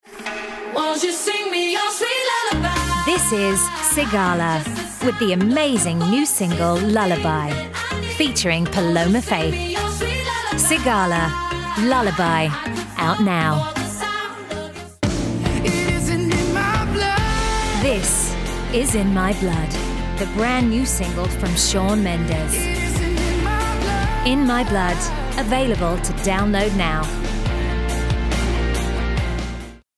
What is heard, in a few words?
Music Commercials; reels